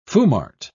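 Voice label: "foumart", English, British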